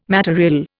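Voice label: "matériel", Indian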